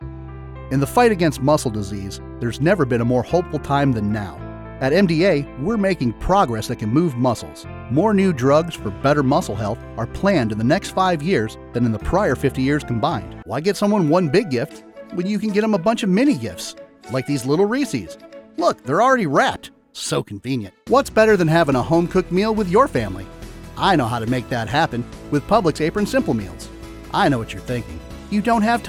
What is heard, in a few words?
Commercial